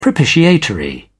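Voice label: "propitiatory", British English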